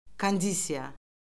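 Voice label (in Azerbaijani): "kondisiya", Azerbaijani; Orfoqrafiya Lüğəti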